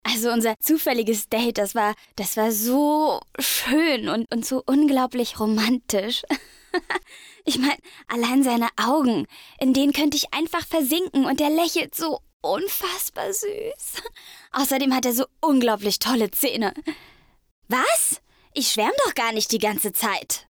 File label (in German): Schwärmender Teenie; LOFT VOICES